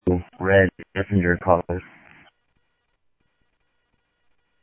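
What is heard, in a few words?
Sheriff West County, scanner audio trunk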